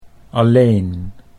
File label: "alleen", Dutch